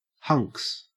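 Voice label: "hunks", Australian English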